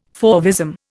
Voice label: "fauvism", Indian

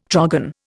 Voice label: "trogon", Indian

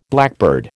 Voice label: "blackbird", American

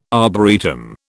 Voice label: "arboretum", British

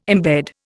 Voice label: "imbed", Indian

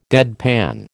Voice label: "deadpan", American

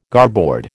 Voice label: "garboard", American

